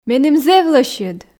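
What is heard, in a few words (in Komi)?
меным зэв лӧсьыд, Komi, female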